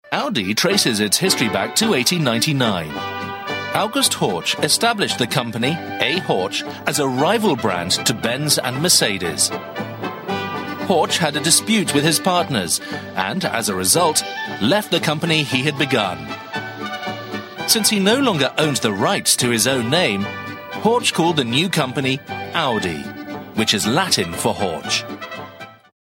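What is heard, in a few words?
British narration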